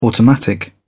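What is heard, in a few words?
"automatic", English, British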